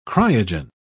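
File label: "cryogen", British English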